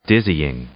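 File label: "dizzying", English; adjective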